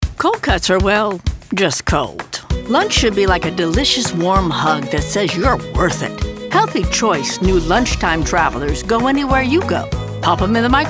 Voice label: Commercial